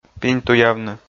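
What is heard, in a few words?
"пиньтуявны", Komi, male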